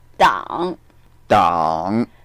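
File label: "dang3", Mandarin Chinese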